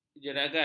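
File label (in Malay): "jeragat", Malay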